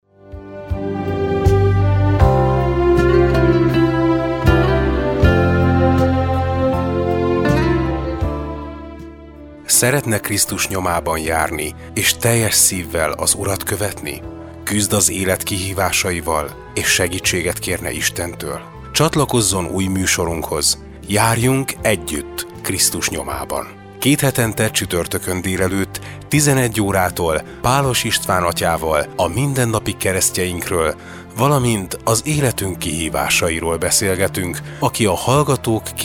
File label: Radiospot; Krisztus nyomaban; Musoros reklam szpotok